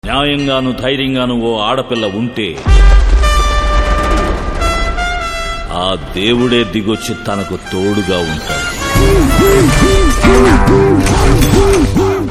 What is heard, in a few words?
Dialogue Ringtone; Peddanna Trailer; Rajinikanth